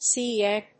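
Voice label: "sea egg", English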